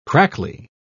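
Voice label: "crackly", British English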